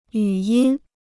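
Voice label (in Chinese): "语音" Mandarin Chinese; 语音 (yǔ yīn); speech sounds; pronunciation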